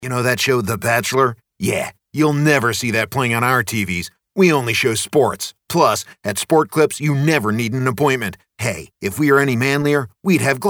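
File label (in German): English US Cool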